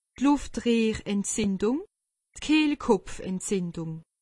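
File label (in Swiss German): "luftrehrentzindung", Alsatian; medecine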